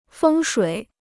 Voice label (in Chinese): "风水", Chinese